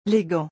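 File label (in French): "les gants", French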